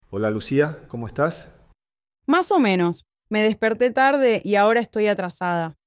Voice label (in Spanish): Dialogo, espanhol; como dizer que você não está bem em espanhol